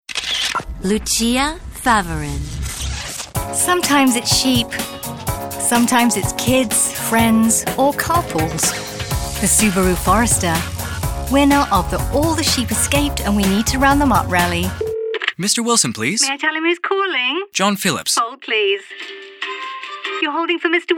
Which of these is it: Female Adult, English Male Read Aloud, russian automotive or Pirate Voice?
Pirate Voice